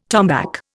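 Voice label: "tombolo", Indian; Dictionary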